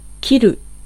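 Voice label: Japanese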